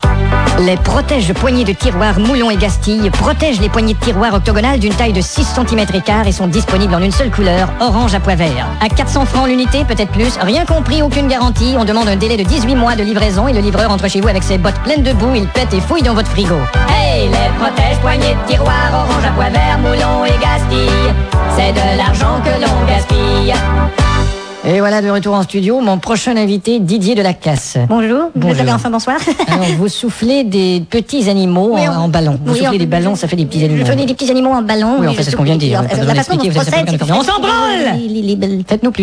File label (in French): poignee tiroir, pub